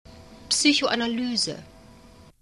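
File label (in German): "Psychoanalyse", German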